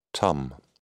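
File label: "tum", British English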